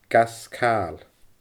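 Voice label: "gas caail", Scottish Gaelic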